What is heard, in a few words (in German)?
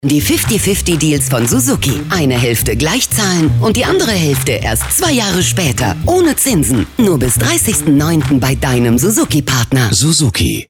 werbung; suzuki